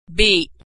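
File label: "beat", American English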